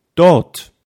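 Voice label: "dort", German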